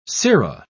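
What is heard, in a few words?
"sera", British English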